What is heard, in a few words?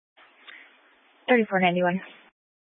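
Police 1 Dispatch, Scanner audio recording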